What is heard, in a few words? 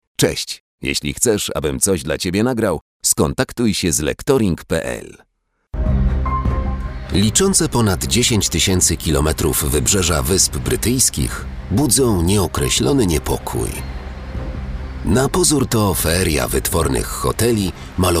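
Polish male; lektoring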